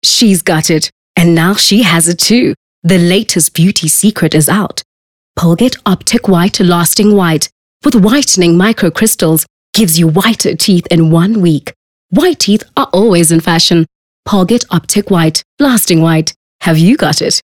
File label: English Bright